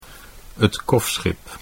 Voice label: "t kofschip", Dutch

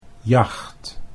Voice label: "jacht", Dutch

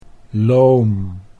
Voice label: "loom", Dutch

